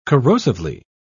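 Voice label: "corrosively", British English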